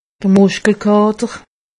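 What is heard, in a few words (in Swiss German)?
"muskelkater", Haut Rhin; medecine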